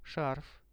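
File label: "scarf", Russian; шарф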